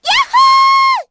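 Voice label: Vox Happy, Korean; Hanus; kingsraid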